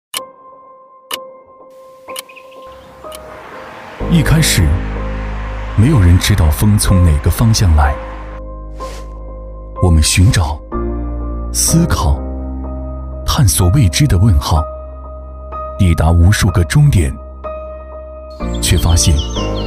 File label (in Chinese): 男 汽车广告 大气品质; 东风风神; 激情有力 高端大气